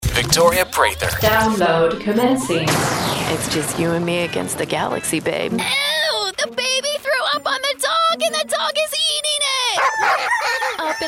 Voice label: Animation